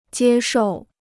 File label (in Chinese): "接受", Chinese